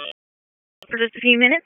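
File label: EMS Dispatch, p25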